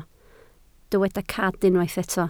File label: "cad", Welsh